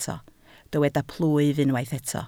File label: "plwyf", Welsh